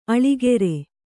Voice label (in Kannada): "ಆಳಿಕಾರ", Kannada